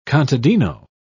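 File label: "contadino", British English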